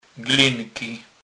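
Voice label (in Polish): "glynky", Kurpie dialect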